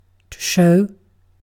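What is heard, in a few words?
"show", British English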